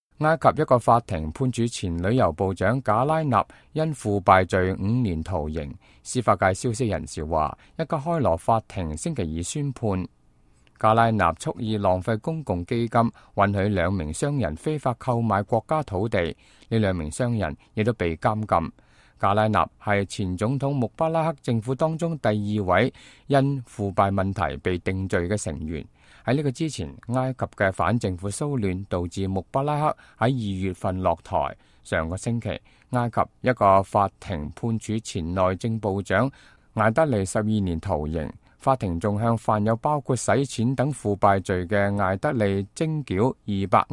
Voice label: cantonese, news